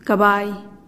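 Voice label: "kabai", Teotitlán del Valle Zapotec; horse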